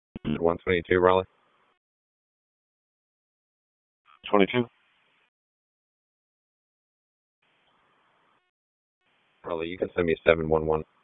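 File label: Police District Dispatch, p25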